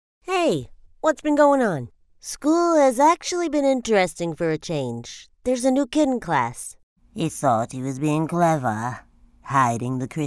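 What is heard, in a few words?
English US female Characters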